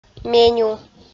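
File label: "меню", Komi, female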